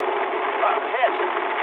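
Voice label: English aircraft destroyed mood med; War Thunder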